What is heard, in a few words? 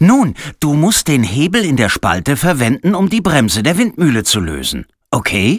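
German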